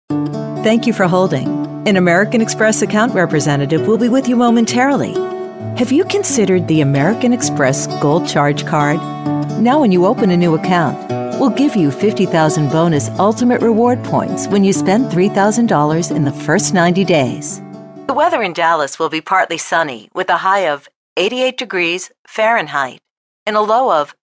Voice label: Ivr